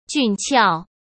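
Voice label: "俊俏", Mandarin Chinese; chinese flashcards; hsk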